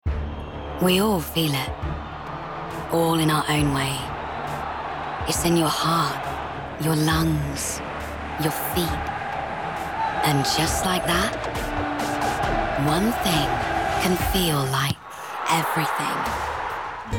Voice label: commercial